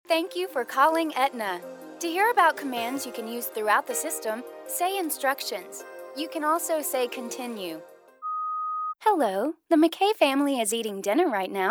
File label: Phone